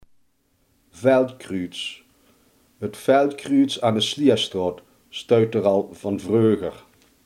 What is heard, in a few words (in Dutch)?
"veldkruis", limburgse